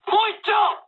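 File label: player killed enemy mood high, speech japanese; War Thunder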